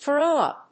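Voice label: "throw up", English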